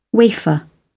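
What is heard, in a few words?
"wafer", British English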